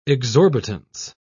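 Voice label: "exorbitance", British English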